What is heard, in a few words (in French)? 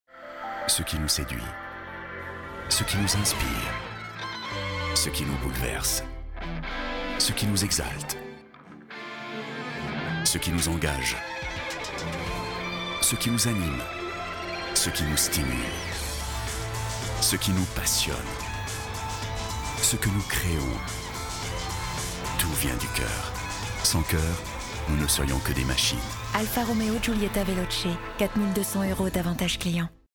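Sweet complice, comédienne voix off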